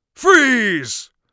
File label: freeze, Mage Voice; Mage Voice Pack